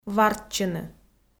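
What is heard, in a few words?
"вартчыны", Komi, female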